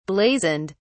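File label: "blazoned", British English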